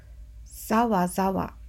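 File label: "zawa zawa", Japanese; ザワザワ – zawa zawa; manga onomatopoeia sound effects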